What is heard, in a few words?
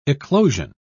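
"eclosion", British English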